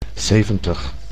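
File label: "zeventig", Dutch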